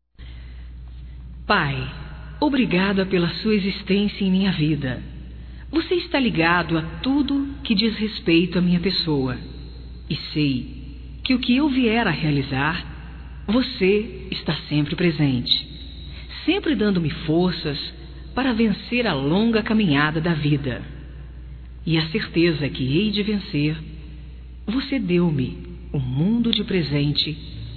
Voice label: PAI, Telemensagens, Aniversarios, fem; Telemensagens 2017

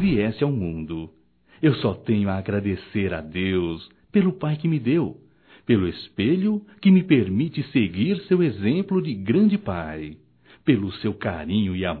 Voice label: Dia dos Pais Falar de Você Masculino

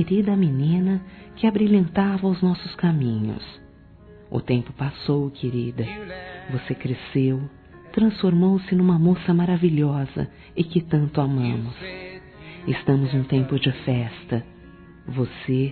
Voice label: PAIS COMPR FILHA F; Casamentos; fem; Telemensagens

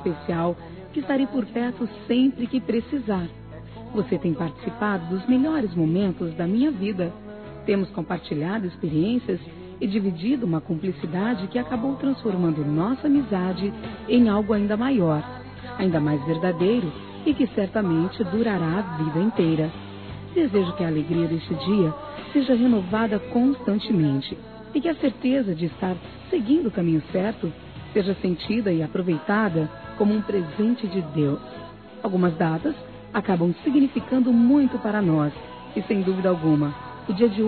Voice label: COMPADRE, Telemensagens Aniversários; Aniv Familiar

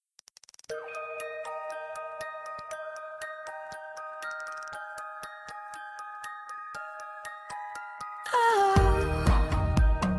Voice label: Verso de Carinho M, Telemensagens; masc; Telemensagens 2017

